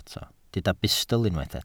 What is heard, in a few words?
"bustl", welsh